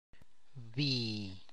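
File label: tlacochahuaya, Zapotec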